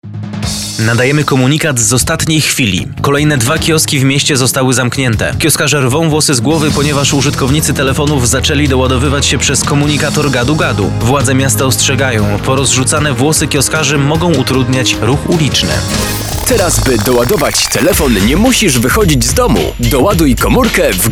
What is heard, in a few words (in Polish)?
spot